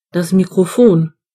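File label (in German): "microphone", German; gcompris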